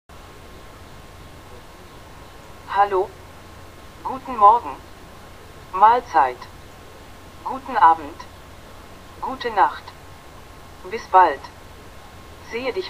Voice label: german test